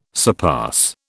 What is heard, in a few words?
"surpass", British